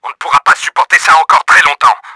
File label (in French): HEALTH CRITICAL, french; Battle Engine Aquila